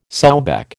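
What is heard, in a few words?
"sowback", American English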